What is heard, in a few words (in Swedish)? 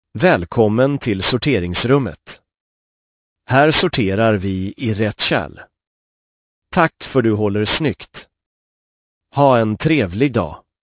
Soprum man